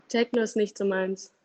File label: Techno, German